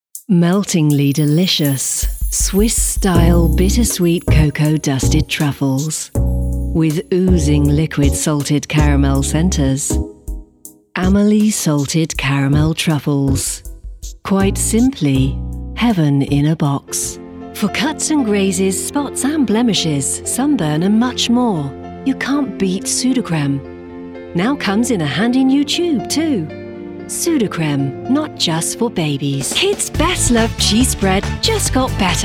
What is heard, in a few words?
M Commercial